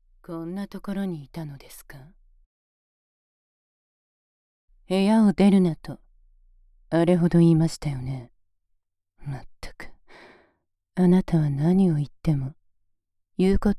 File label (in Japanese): フリートーク; 事務的ドSシスターの下品すぎる性欲処理